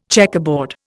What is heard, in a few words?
"checkerboard", Indian